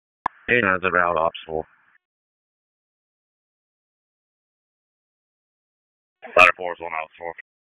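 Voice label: FIRE Operations, p25; FIRE OPS 4; wake p25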